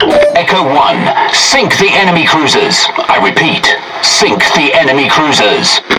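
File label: Speech Tutorial Task, British English; World Of Warships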